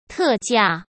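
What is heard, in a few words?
"特价", Mandarin Chinese; hsk; chinese-flashcards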